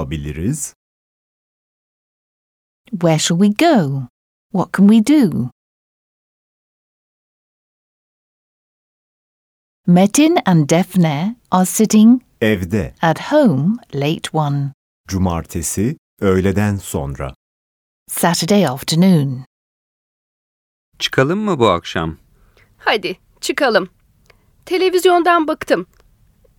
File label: Dialogue, Turkish; Complete Turkish Teach Yourself